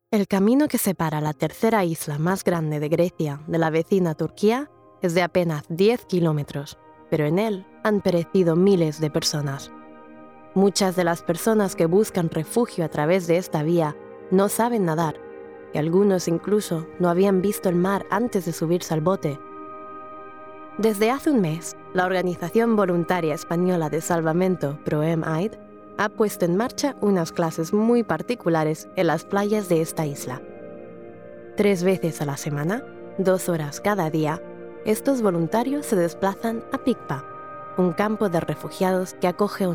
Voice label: Spanish